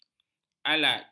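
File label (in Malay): "alat", Malay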